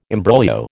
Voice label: "imbroglio", American